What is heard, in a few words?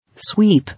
"Sweep", English